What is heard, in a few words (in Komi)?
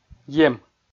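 "ем", Komi, male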